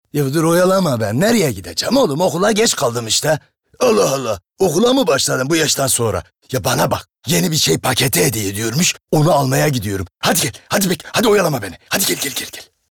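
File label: Eastern Anatolian dialect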